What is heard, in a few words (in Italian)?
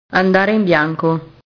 "andare in bianco", italian